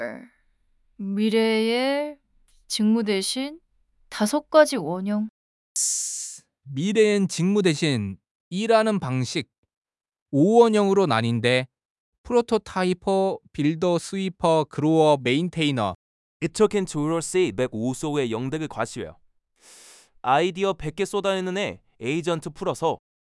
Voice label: audiobook ko; five work archetypes